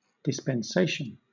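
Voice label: "dispensation", British English; pronunciations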